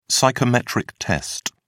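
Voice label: "psychometric test", British English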